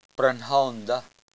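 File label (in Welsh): "prynhawn", Welsh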